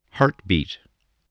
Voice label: "heartbeat", spelling